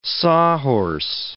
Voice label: "sawhorse", English

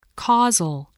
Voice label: "causal", spelling

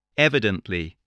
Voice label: "evidently", voice, english

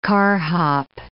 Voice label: "carhop", English

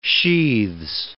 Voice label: "sheaths", English

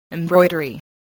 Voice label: "embroidery", spelling

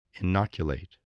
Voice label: "inoculate", voice spelling

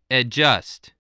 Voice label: "adjust", English voice

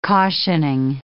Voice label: "cautioning", English

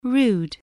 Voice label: "rude", English spelling

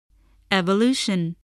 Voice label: "evolution", voice, english

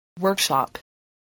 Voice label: "workshop", voice, english

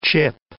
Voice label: "chip", English

